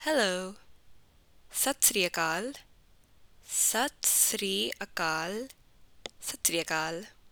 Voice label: "Hello", Punjabi

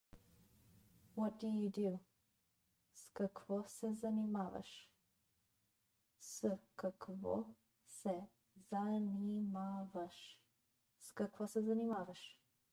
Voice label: BGN What do you do, Bulgarian; the talking bulgarian phrasebook

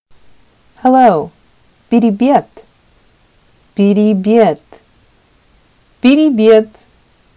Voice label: Sakha Hello

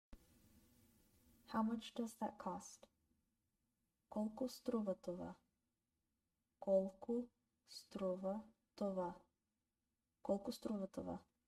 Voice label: BGN How much does that cost, Bulgarian; the talking bulgarian phrasebook

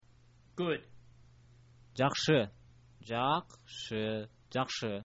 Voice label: good, kyrgyz

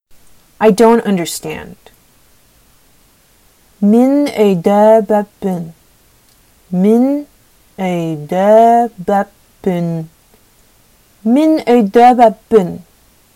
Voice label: Sakha I dont understand

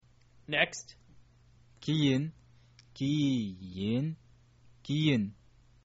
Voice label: next, kyrgyz

